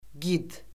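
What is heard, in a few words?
"gid", Azerbaijani; Orfoqrafiya Lüğəti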